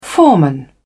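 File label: "foreman", British English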